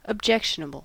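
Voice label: "objectionable", US English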